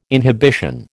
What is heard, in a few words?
"inhibition", American